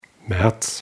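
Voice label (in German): "maerz", German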